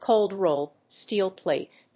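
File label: "cold rolled steel plate", English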